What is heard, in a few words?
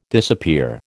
"disappear", American